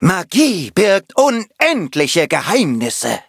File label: loading, de; Cookie Run